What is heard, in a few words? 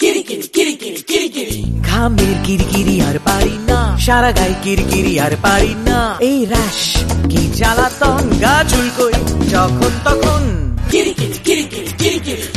Suthol Bengali